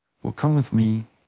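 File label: Voice Acting, Spanish, male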